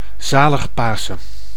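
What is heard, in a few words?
"Zalig Pasen", Dutch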